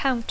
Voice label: "count", English